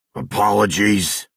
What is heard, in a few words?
male sorry